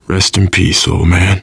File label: grief, survivor voice, biker; Left 4 Dead 2; left4dead2 dlc2